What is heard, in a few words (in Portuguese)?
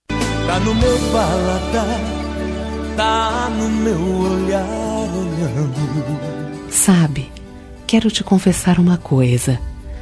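ROMANTIC AMOR A MODA ANTIGA FEM, Telemensagens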